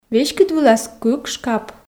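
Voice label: веськыд вылас кык шкап, Komi, female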